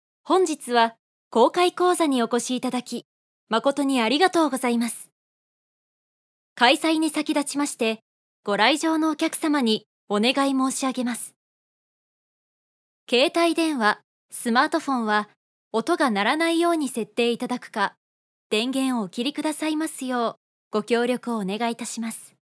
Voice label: female